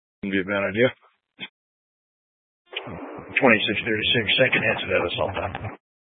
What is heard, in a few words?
Mesa County Sheriff Dispatch